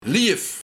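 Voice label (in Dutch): "Liejef", Luik, Leeuw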